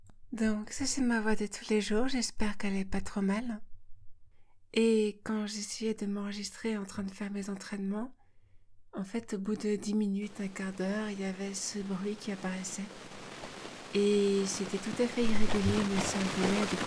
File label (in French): voix et bruit fantome, Entraîner sa voix; ⚧ Journal de transition